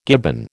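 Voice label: "gibbon", American English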